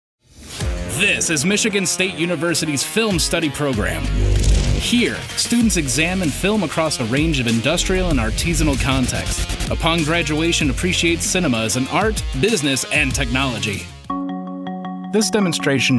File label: Promo & Narration